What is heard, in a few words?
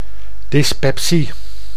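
"dyspepsie", Dutch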